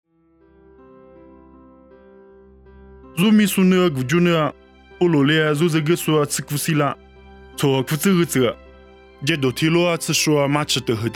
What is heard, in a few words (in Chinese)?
凉山彝语; 地中海希腊; nuosu